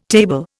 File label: "table", Indian